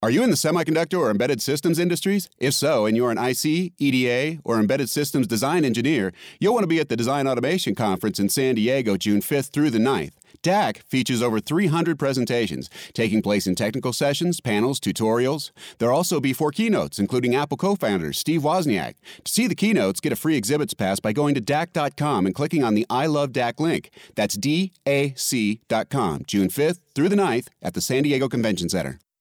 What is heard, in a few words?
radio spots, DAC radio commercials; 48th DAC Radio Ads; DAC radio commercials featuring highlights, speakers, and key programs to promote the conference across broadcast and digital